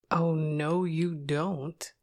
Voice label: girl "no"; Inseminator